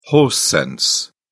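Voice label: "horse sense", British English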